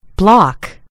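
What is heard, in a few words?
"block", American English; gcompris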